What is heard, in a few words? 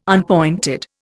"unpointed", Indian